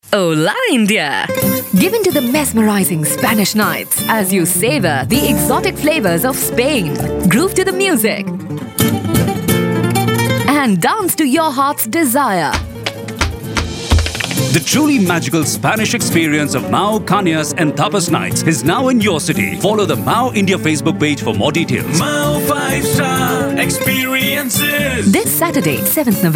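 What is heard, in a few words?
TVC English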